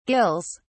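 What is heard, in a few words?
"gills", British English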